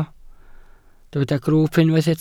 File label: "grwwp", Welsh